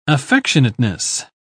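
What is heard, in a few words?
"affectionateness", English, uk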